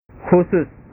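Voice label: "khusus", Indonesian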